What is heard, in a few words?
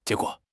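"jie2 guo3", Mandarin Chinese; HSK; HSK4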